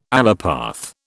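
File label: "allopath", British English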